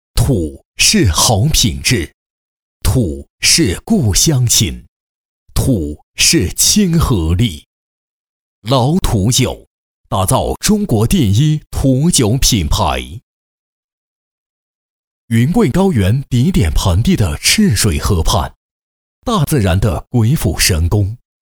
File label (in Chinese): 男; 老土